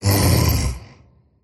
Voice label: happy; dota; tiny